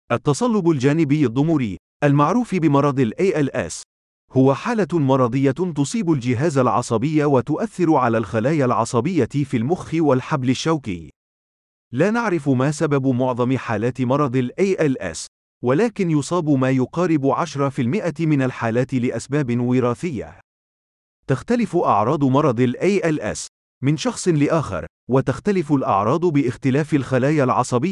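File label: Arabic, male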